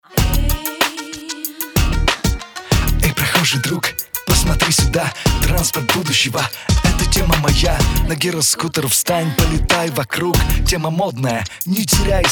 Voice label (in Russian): Rolik RAP Giroskuter